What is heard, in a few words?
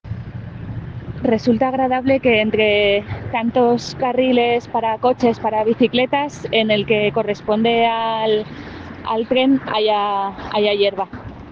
Voice message